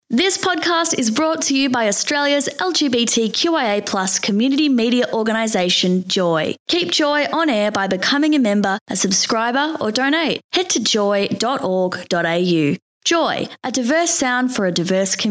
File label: Vox Pop; Melbourne